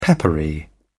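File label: "peppery", British English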